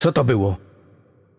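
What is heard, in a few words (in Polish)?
Game Intro, Polish; VO; bloom minigame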